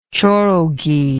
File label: "chorogi", English